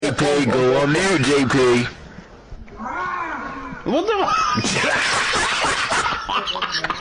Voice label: go on mute jp